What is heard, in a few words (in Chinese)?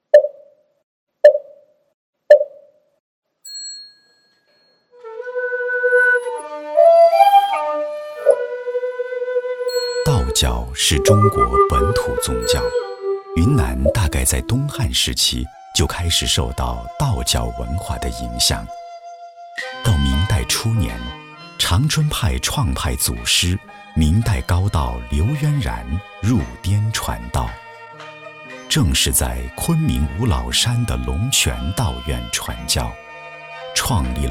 纪录片大气自然; 长春派道乐